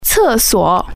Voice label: "toilet", Chinese